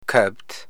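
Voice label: "käbt", amharico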